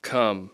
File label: "come", English